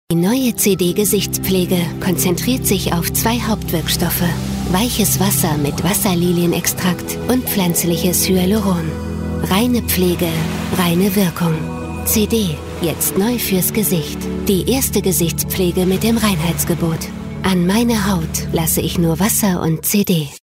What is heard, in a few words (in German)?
Sanft